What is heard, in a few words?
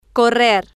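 "correr", Spanish, female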